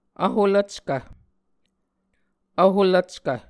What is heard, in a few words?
"vholvckv", Muscogee